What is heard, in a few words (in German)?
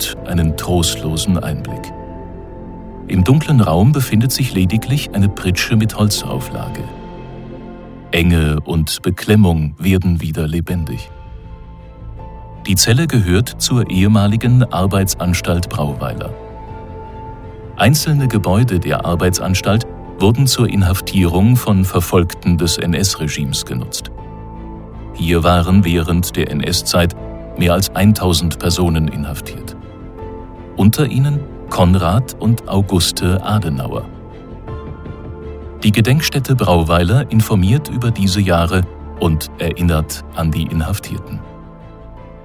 Doku